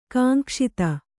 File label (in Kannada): "ಕಾಂಕ್ಷಿತ", Kannada